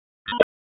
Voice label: Police LE Ops, p25; Raleigh; wake